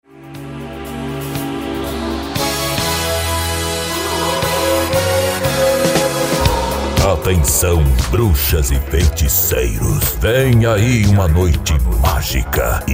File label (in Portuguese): LOCUTOR Halloween Pop Fantasy, CHAMADA ♂